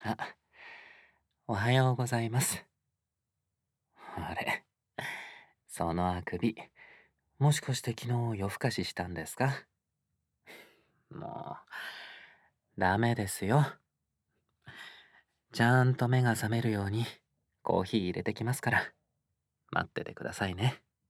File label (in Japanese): おはようボイス, ASMR; 犬系男子ハルくん